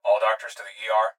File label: Hospital Pa Voice; Blastwave